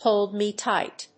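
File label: "Hold Me Tight", English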